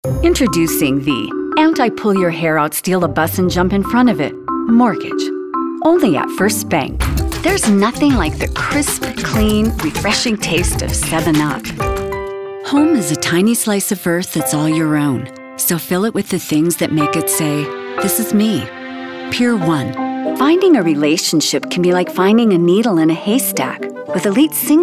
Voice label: voice over